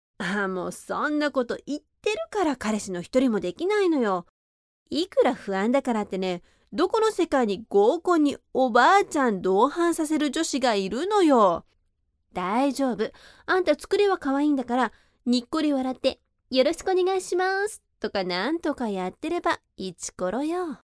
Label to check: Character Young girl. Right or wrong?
Right